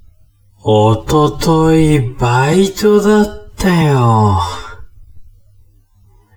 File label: man